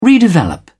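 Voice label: "redevelop", English, British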